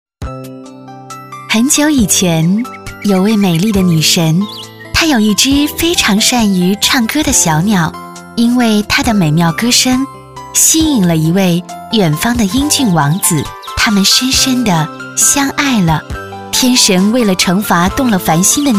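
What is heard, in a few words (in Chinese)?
女S112 国语 女声 宣传片-讲述 沉稳 科技感 积极向上 亲切甜美; 印心鸟品牌故事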